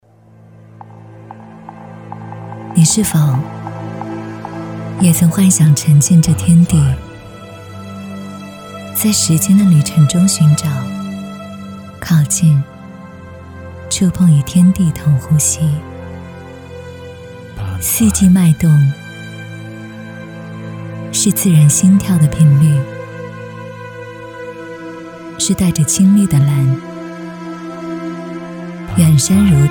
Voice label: 女 化妆品

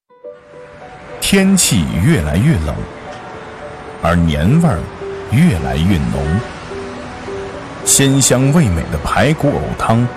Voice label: 男 广告 舌尖类广告

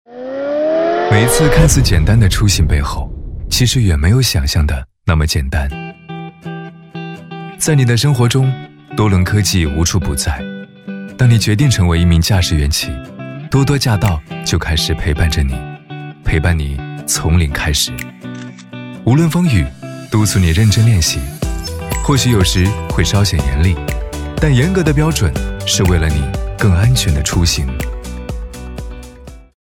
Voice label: 男-宣传片--活泼--自然; 多伦宣传片; 中央电视台CCTV8台标声

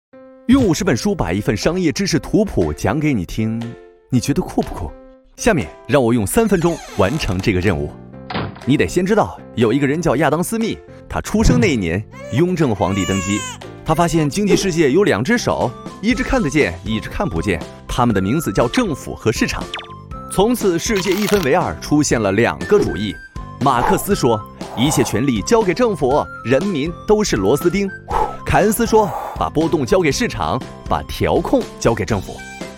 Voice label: 男 介绍 MG; 吴晓波; 商业知识图谱

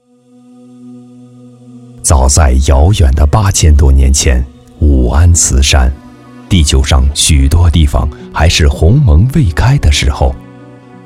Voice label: 男 纪录片 深情; 魅力武安

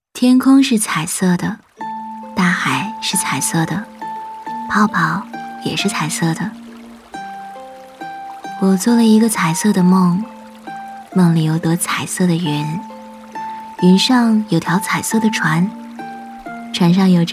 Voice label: 女 独白微电影 散漫慵懒; 猫咪的快乐就像泡泡机

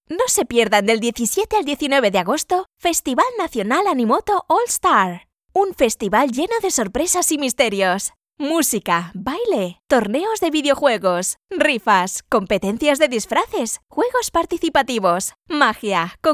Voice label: 西班牙语 女 干音 轻快

